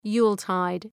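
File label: "Yuletide", English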